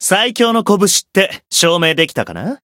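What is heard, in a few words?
win, Japanese; nero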